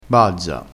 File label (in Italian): "bazza", crespinese